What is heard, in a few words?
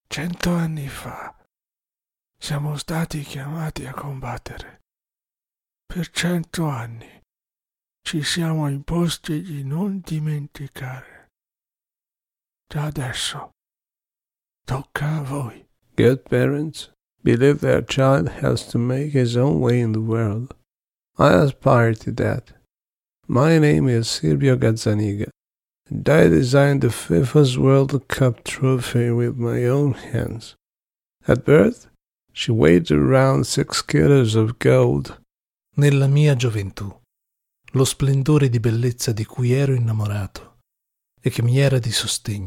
old voice